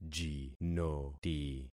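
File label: "jinoti", concatenated syllables; Affixing experiment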